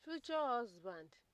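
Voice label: "future husband", Nigerian Pidgin, female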